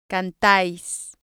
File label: "cantais", spanish